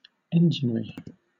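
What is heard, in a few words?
"enginery", British English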